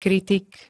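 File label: "kritik", Slovak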